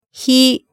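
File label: "hi", Japanese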